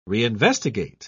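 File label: "reinvestigate", British English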